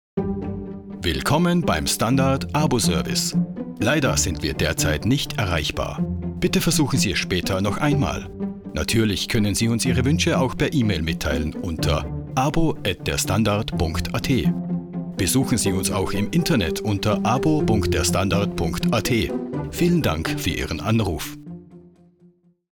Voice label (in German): Telefonansage Standard